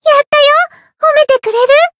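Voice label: Voice Japanese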